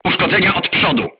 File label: voice message shot front, crew tanks polish